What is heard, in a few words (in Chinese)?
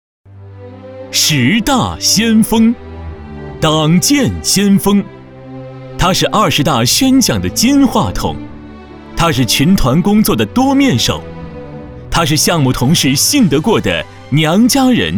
颁奖, 男; 十大先锋